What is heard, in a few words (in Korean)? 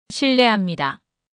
실례합니다, korean pronunciation excuse me